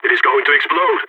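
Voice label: bomb ticking down, voice, agents, balkan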